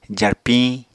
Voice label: "jarpiñ", Apatani